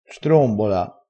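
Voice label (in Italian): "strombola", dialettale, crespinese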